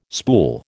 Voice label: "spool", American

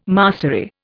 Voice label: "mastery", Indian

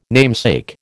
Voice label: "namesake", American

